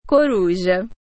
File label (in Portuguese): owl, pt_BR; FoodChain